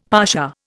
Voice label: "pasha", Indian; Dictionary

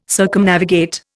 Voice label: "Circumnavigate", Indian

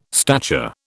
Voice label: "stature", British

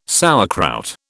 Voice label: "sauerkraut", British

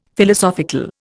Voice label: "Philosophical", Indian; Dictionary

